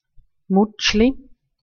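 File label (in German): "mutschli", Swiss German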